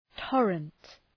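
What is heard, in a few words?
"torrent", English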